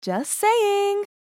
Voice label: Just saying meme voice female

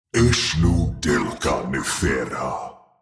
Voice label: Demon king lord spell casting

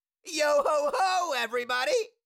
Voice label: Cartoon little monster voice yohoho everybody

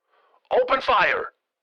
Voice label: Military radio voice command; open fire

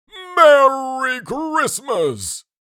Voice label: Merry christmas santa claus voice

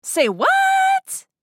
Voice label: Say what meme voice female

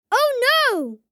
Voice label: Oh no boy child kid

